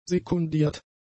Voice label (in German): "sekundiert", German; adjectives